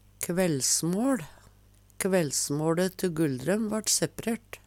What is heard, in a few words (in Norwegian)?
"kvællsmåL", Numedalsmål; Hushald, mat, drikke